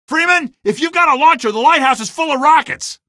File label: lite rockets, male; Source Engine; video game; coast; barn